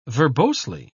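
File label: "verbosely", British English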